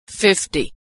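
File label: "number 50", American English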